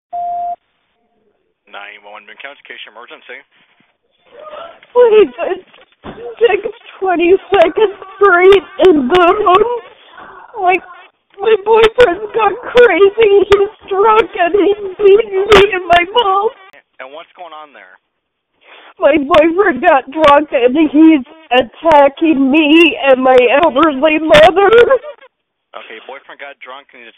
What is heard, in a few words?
911 Call; Boone PD; six boone cops on leave after shooting knife wielding man